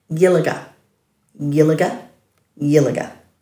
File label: "yilaga-", Dhurga; dulagaal